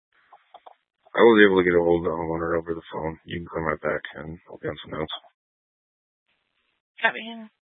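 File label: Sheriff Dispatch, Scanner audio recording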